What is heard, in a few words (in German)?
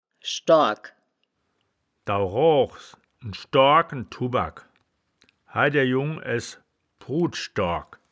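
"schdoargk", Wittlicher Wörterbuch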